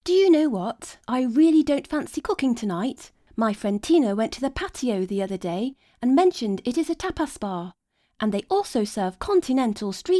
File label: Radio commercial